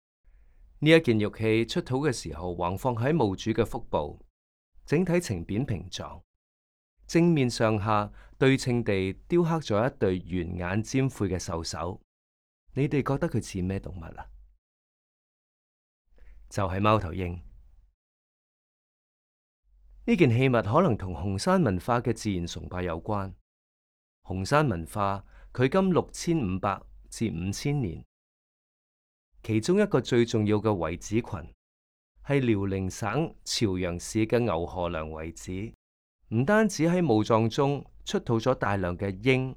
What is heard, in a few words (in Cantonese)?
Can VO; audio guide